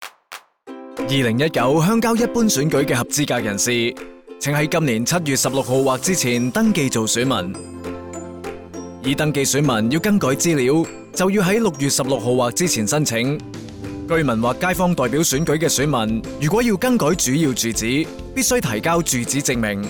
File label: radio